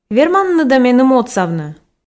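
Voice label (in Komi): верманныд-ӧ меным отсавны, Komi, female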